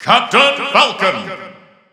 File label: English Announcer; Captain Falcon; SSB4 SSBU